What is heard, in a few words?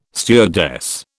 "stewardess", British